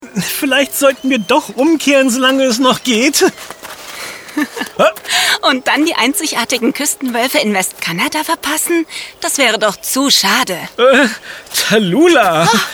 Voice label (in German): Kinderpodcast; Edeka